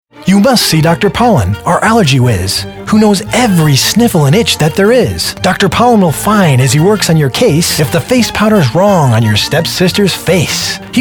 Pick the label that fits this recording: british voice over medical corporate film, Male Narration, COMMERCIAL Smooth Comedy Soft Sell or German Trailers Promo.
Male Narration